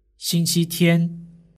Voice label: "xingqitian", Chinese; days